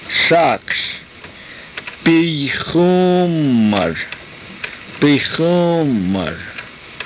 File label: "pixuumar", karuk